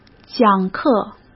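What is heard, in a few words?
"讲课", Mandarin Chinese; hsk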